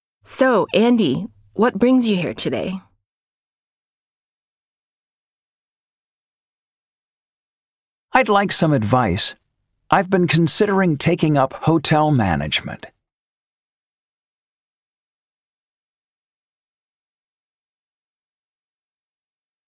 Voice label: PRONUNCIATION PRACTICE; Connectivity 5